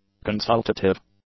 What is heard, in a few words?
"consultative", English; adjectives